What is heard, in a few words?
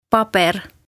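"Paber" Estonian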